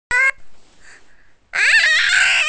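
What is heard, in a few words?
"tou2", Mandarin Chinese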